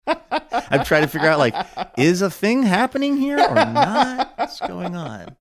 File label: laughing saying im trying to figure out